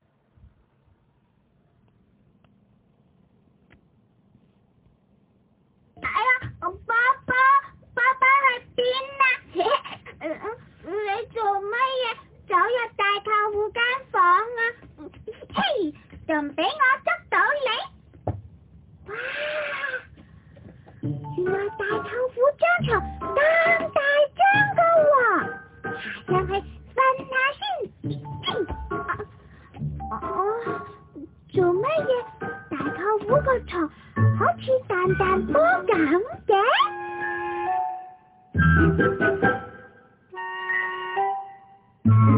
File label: Kid Cant VO